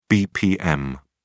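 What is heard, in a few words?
"bpm", English, British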